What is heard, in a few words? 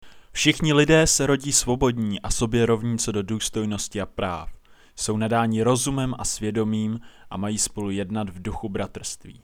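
Czech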